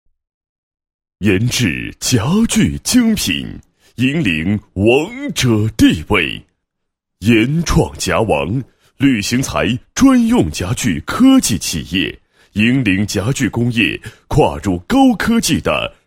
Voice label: 男 解说词 研制公司宣传片解说稿

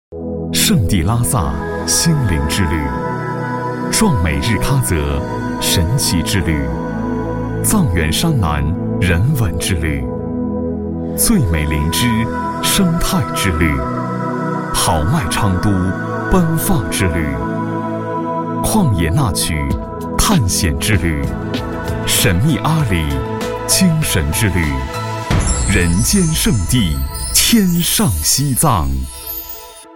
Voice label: 男 旅游宣传片; 我说西藏那么美-你说是的

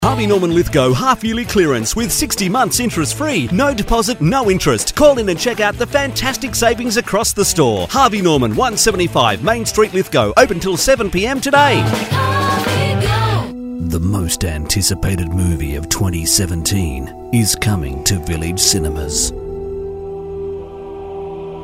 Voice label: 外籍英男, 各色广告

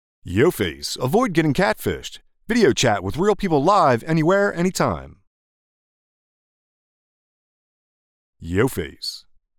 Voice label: 外籍英男 社交APP宣传片

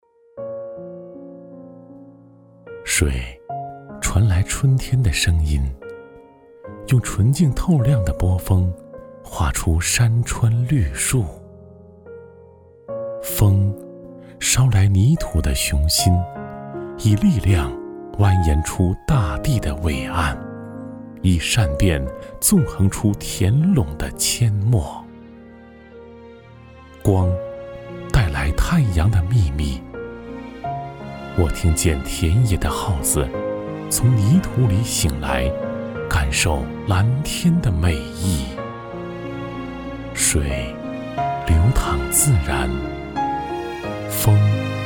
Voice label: 男, 朗诵, 诗歌朗诵

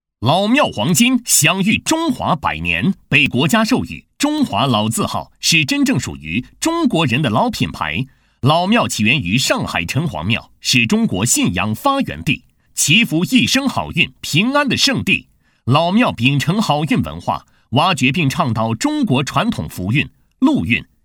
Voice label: 男 广告; 老庙黄金享誉中华百年

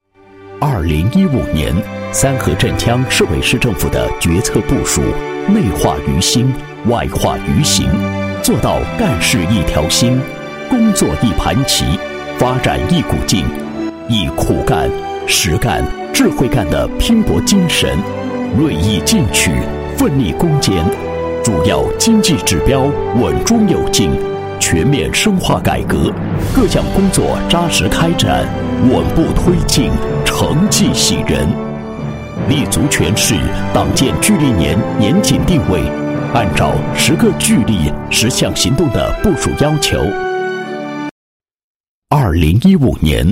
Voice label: 男-汇报-2015年工作汇报, 配音案例; 三合镇

